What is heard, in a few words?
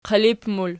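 "qilip" plural, Archi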